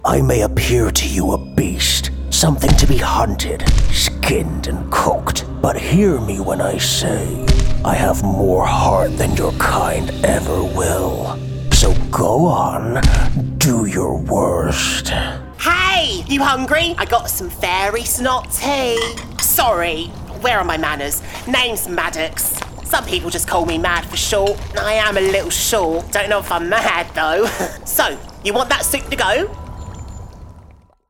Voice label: transgender female english male voice character

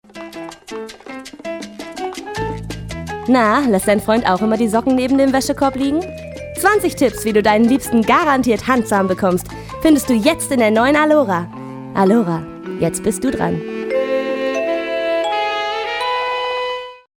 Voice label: female german commercial; alora

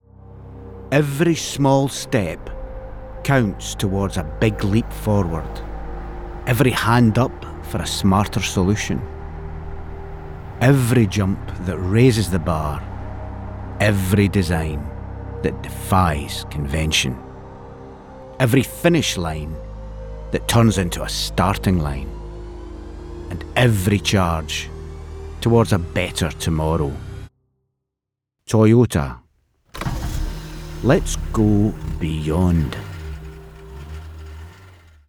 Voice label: male english scottish commercial; toyota

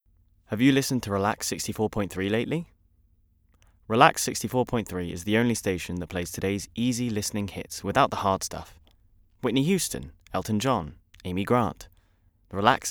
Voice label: male english radio commercial; voices

